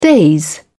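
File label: "daze", English, British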